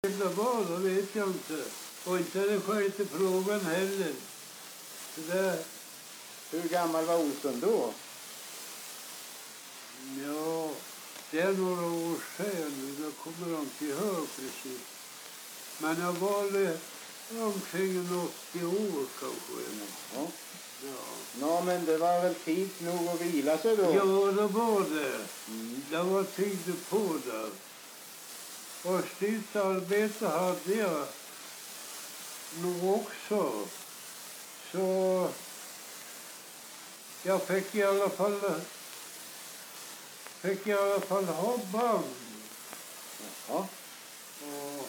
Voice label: dialekter; Folkminnen; Svenska sagor

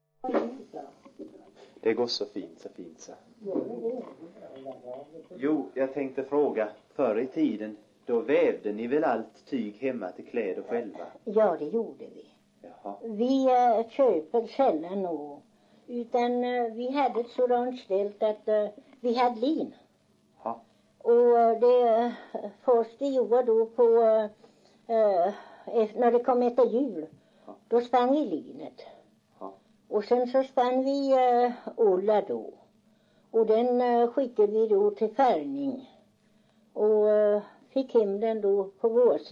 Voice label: dialekter; Folkminnen; Svenska sagor

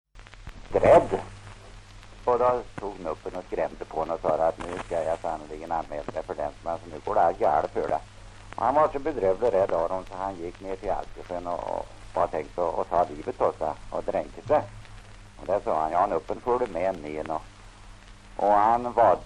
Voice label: Järnskog, dialekter; Folkminnen

